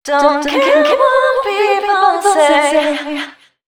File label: female 130, Dance Ultra Voice, short hooks; Mutekki Media; Dance Ultra Voice VOL-1